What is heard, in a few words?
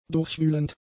"durchwühlend", german; adjectives